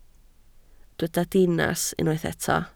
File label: "dinas", Welsh